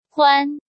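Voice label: "Huan", Chinese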